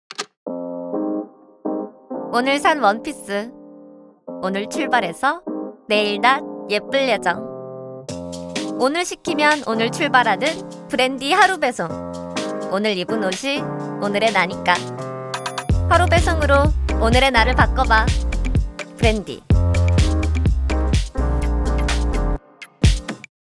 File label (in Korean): 광고 당차고 자연스러운 느낌; 브랜디